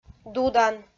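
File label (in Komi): "дудан", Komi, female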